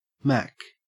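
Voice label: "mack", Australian English; pronunciations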